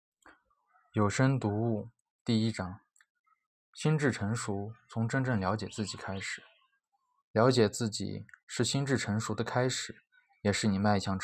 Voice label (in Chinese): 有声读物